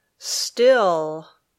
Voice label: "still", English